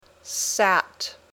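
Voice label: "sat", English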